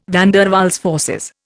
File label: "van der Waals forces", Indian